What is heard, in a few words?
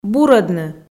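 "бурӧдны", Komi, female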